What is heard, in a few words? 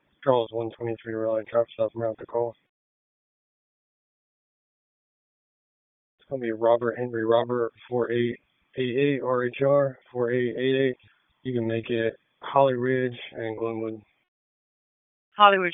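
Police Southwest District Dispatch, p25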